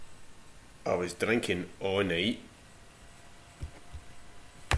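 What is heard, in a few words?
"drinkin", slang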